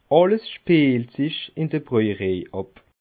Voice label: "brojerej", alsace

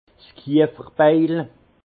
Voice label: "kiefferbeil", alsace; vin; hache du tonnelier

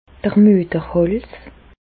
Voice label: "mueterhals", Bas Rhin; medecine; col utérin

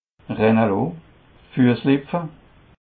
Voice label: "rennaloo", alsace

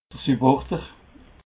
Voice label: "subborder", alsace

